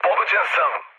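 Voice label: Serbian aircraft damaged mood low, speech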